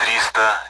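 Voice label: Speech Sound Russian Common AWACS Numbers; DCS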